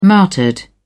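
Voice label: "martyred", British English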